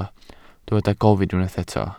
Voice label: "gofyn", Welsh

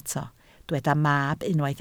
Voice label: "mab", Welsh

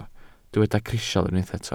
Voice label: "crisial", welsh

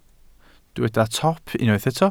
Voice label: "top", Welsh

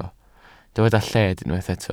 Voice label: "lled", welsh

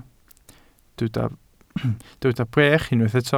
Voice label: "brech", welsh

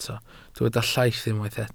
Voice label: "llaith", Welsh

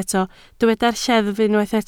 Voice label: "lleddf", Welsh

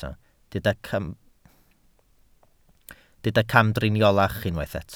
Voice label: "camdriniolach", Welsh

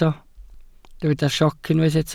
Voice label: "sioc", Welsh

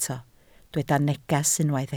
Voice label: "neges", Welsh

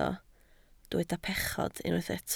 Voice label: "pechod", Welsh

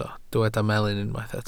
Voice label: "melyn", Welsh

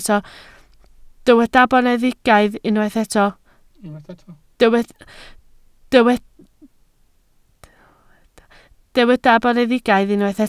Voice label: "boneddigaidd", Welsh

